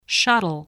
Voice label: "shuttle", voice spelling